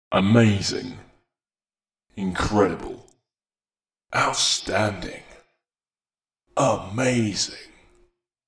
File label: Announcements